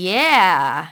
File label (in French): reussite fem